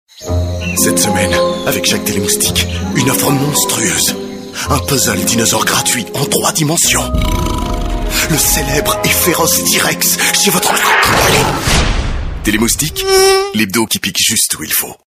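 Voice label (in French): Belgian French, male, commercial; voice demos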